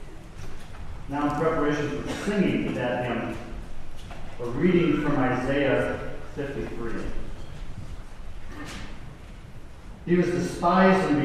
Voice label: Commentary; Stricken Smitten and Afflicted